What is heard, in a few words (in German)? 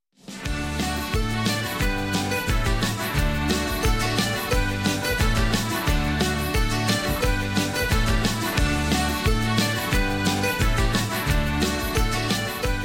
Eifeler Mundart; Bauchredner